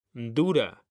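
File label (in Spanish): "dura", Spanish; mekanta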